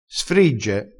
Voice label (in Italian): "sfrigge", vocabolario dialettale